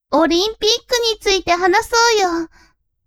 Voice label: woman